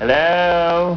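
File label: "hello", English; VOZES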